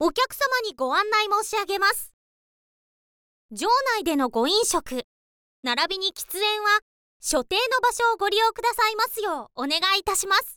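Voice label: okyakusama ni onegai, Japanese, girl, info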